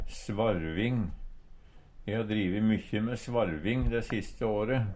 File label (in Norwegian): svarving, Numedalsmål; dreiing; Uttrykk